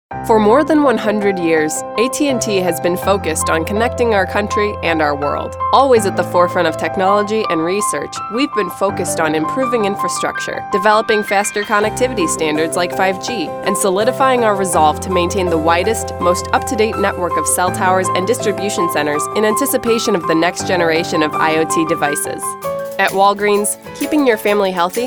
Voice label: corporate